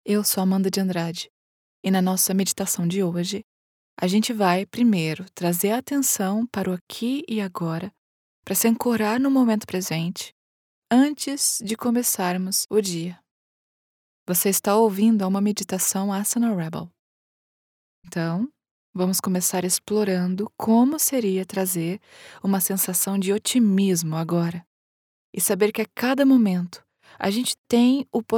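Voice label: Meditation Calm Natural Warm Deep Optimistic, female Brazilian Portuguese voiceover; AsanaRebel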